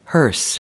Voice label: "hearse", us